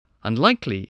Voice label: "unlikely", English spelling voice